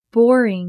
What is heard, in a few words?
boring